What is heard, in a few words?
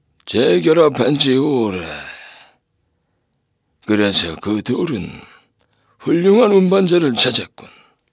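korean male character; voice demos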